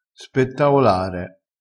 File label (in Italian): "spettaolare", vocabolario dialettale crespinese